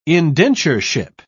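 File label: "indentureship", British English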